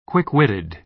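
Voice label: "quick-witted", American English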